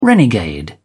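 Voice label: "renegade", English, British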